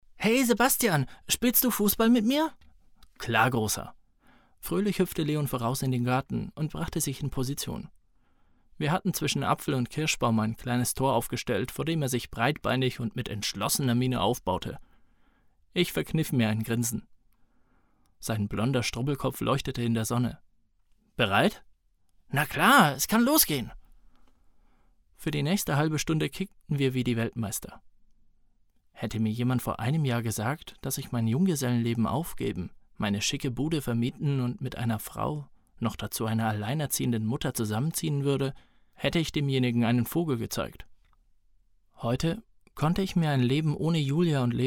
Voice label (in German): Hoerbuch; Die kleine Pension Kuestentraum